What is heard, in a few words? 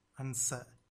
"ansa", Tamil